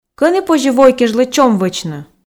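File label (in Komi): кӧні позьӧ вой кежлӧ чом вӧчны, Komi, female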